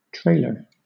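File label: "trailer" English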